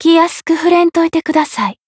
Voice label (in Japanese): Voice variable chant, jp; hoozuki